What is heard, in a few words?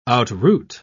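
"outroot", British English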